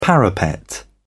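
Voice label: "parapet", British English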